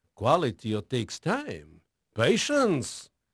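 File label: Portuguese male, voice; Pirates Of The Caribbean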